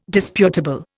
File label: "disputable", Indian